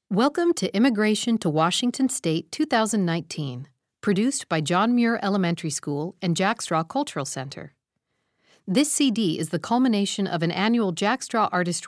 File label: Opening Narration; John Muir Elementary Immigration to Washington State 2019